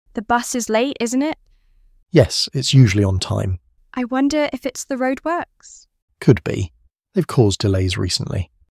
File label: Small Talk Dialogue, English learners